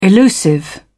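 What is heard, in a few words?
"elusive", British English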